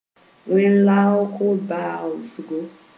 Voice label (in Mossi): "wẽn-loaak baoosgo", Moore